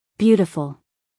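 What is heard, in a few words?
"beautiful", American English, female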